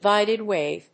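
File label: "guided wave", English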